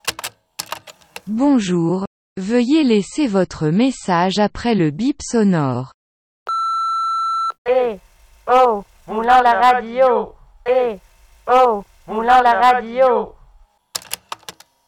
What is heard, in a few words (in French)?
jingle radio repondeur; moulins